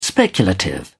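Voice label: "speculative", English, British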